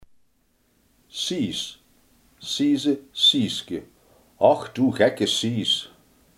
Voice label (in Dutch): "sijs", Limburgs